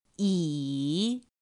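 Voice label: "ǐ", chino mandarin; pinyin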